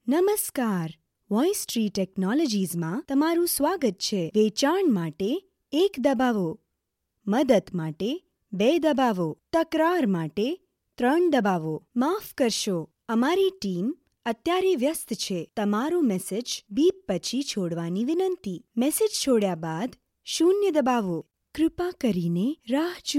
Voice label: Gujarati IVR